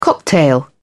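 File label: "cocktail", English, British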